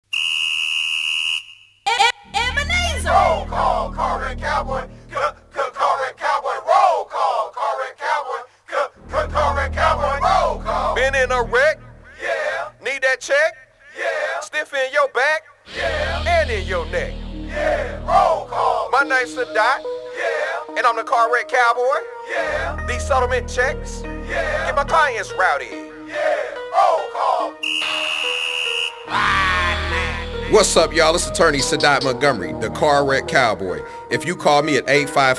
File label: chant commercial